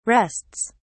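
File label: "rests", English, British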